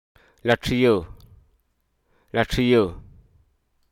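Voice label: Hruso Aka; disengage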